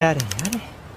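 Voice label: "yare yare", Japanese; dazai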